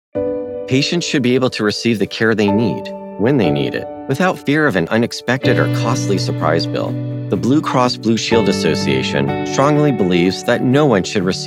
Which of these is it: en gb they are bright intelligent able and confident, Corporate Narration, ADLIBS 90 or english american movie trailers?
Corporate Narration